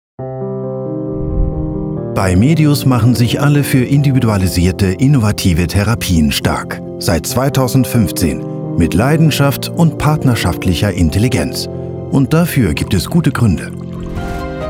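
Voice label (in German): deutsch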